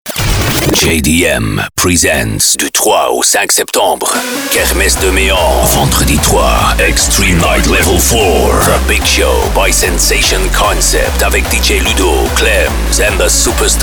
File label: party commercial; kermesse de mean